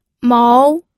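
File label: "mou", second tone, pinyin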